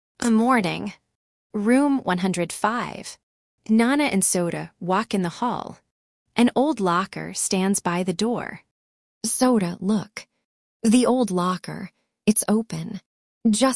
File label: drama